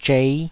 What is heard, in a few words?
"zhei5", taiwanese